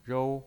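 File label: "rou", Mandarin Chinese pinyin voice, first tone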